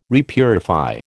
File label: "repurify", American